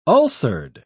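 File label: "ulcered", British English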